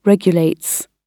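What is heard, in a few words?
"regulates", British English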